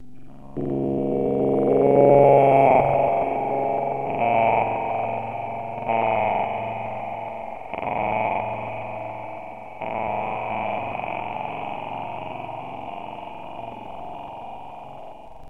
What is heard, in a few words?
Scary voice extended